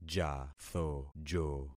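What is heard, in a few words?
"jathojo", concatenated syllables; Affixing experiment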